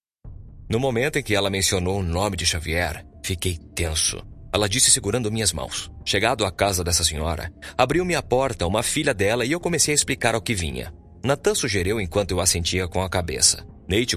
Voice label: portuguese brazilian audiobooks; Tenho 40 anos. Sou um dublador brasileiro com sotaque natural